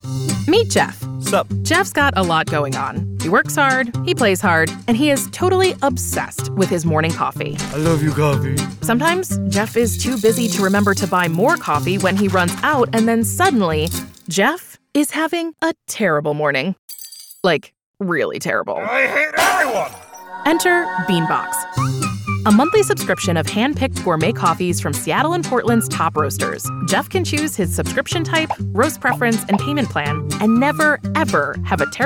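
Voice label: US explainer, commercial, voice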